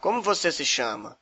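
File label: name, Brazilian Portuguese; Como você se chama?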